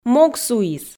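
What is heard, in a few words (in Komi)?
"мог суис", Komi, female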